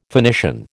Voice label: "Phoenician", American

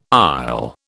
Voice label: "aisle", British

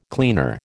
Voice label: "cleaner", American

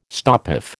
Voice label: "stopoff", American

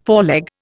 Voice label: "foreleg", Indian

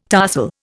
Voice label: "tarsal", Indian

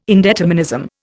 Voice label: "indeterminism", Indian

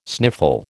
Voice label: "sniffle", American

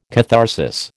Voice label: "catharsis", American

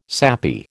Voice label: "sappy", American

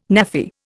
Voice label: "Naffy", Indian

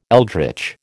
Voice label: "eldritch", American

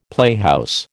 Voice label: "playhouse", American

